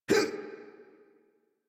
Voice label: Adult Operator Jump, en; Warframe; New War